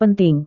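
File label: "penting", Indonesian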